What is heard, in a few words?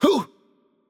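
Attack Light, Brazilian Portuguese; VO; bloom minigame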